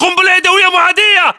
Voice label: inform incoming grenade, english battlechatter